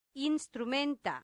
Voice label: "instrumenta", Latin; santillana

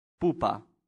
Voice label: "pupa", latin; santillana

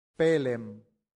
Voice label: "pellem", Latin; santillana

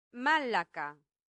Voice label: "malaca", latin; santillana

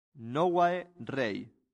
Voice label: "novae rei", latin; santillana

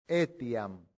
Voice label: "etiam", Latin; santillana

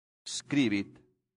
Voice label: "scribit", latin; santillana

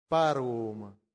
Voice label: "parvum", Latin; santillana

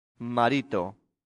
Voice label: "marito", latin; santillana

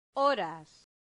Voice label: "horas", Latin; santillana

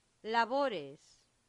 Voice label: "labores", Latin; santillana

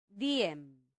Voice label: "diem", Latin; santillana

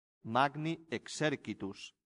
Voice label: "magni Exercitus", Latin; santillana

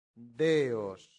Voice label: "deos", latin; santillana

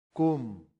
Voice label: "cum", latin; santillana

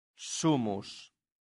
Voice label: "sumus", Latin; santillana

